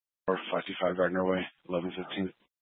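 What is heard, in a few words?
Sheriff Dispatch, Scanner audio recording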